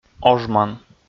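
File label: "ожман", Komi, male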